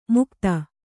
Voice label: "ಮುಗ್ಧ", Kannada